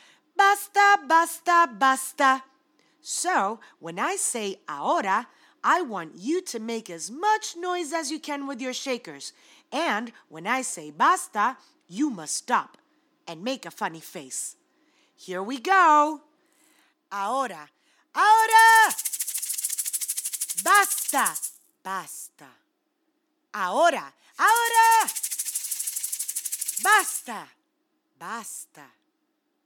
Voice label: Fruits Magic Words Now And Enough Spanish